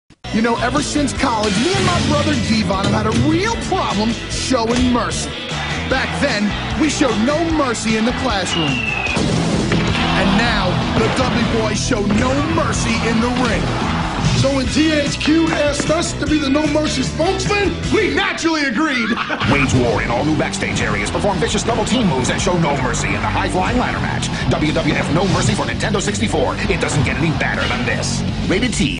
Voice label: Video Game Commercial; WWF No Mercy; Nintendo 64

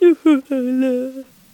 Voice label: Sad; Polar

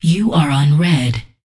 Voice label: Voices Announcer Status; unreal development kit

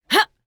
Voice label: Voices Vo Female Fight Attack; Mighty Party; Android

